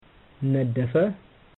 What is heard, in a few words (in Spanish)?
"naddafa", amharico